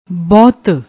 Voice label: "bahot", Punjabi